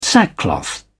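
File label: "sackcloth", English, British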